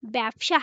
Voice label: Female